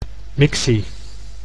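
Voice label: "mictie", Dutch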